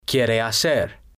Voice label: quiere hacer, Spanish